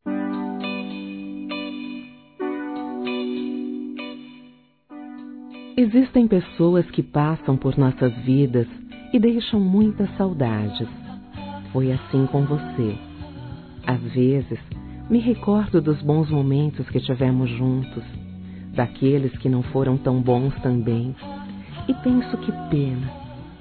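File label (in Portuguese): Romantica Aniversário Ex Namorada, Telemensagens, Românticas masculino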